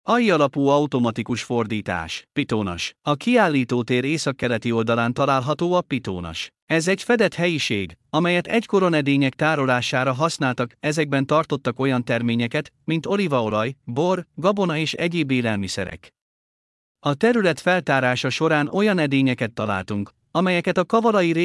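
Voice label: Hungarian, Hangalapú idegenvezetés; Πιθεώνας; Pitheonas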